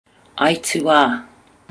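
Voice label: "aitua", Maori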